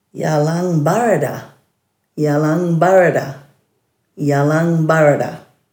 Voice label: "yalangbaradha", Dhurga